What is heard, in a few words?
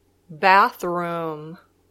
"bathroom", English